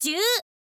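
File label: "zyuu", Japanese, girl; voice; info girl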